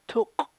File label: "tuk'" ear, chukchansi